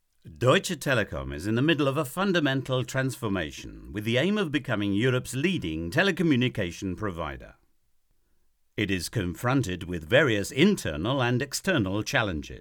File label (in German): Eng Normal; Telekom T3